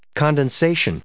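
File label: "condensation", US English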